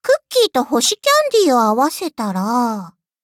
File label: levelup ja; cookie run